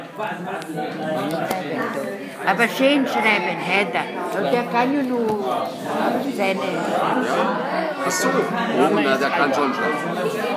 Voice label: Arabic